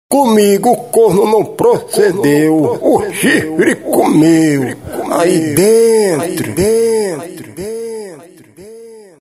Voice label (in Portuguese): voz velho